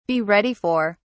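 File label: "be ready for", English, British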